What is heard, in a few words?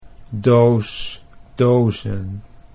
"doos dozen", Dutch